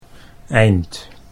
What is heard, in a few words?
"eind", Dutch